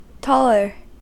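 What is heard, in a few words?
"taller", US English; pronunciation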